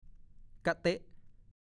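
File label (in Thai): "กะเต๊ะ" ภาษาโคราช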